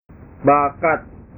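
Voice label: "bakat", Indonesian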